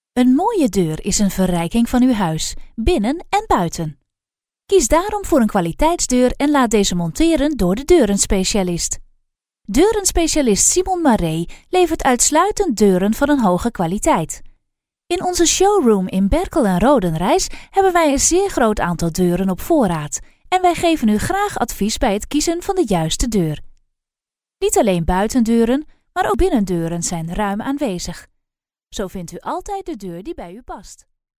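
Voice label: Dutch, female, corporate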